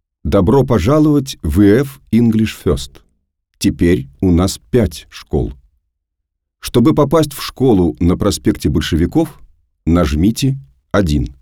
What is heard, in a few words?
IVR